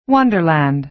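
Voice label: "wonderland", American English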